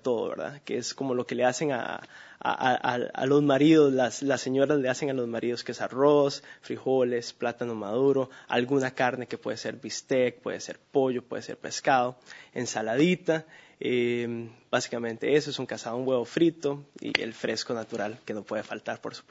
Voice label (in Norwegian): Costa Rica, dialekt